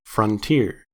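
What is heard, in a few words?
"frontier", American English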